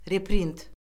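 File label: "reprint", Azerbaijani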